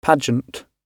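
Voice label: "pageant", British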